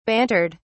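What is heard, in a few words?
"bantered", British English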